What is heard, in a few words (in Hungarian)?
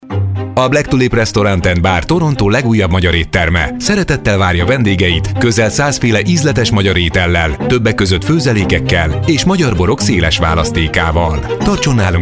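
hungarian male standard; lektoring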